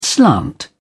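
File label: "slant", British English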